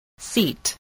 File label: "seat", english spelling